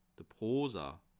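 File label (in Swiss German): "prosa", Bas Rhin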